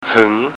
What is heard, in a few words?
"hueng", Thai